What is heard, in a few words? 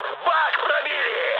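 tank damaged, mood med, Russian; War Thunder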